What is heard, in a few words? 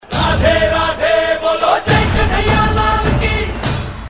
SMS Tone Dialogue; Bollywood 2014 Dialogues; Happy New Year; Radhe Radhe Bolo